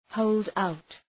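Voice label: "hold out", English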